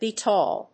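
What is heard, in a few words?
"betall", English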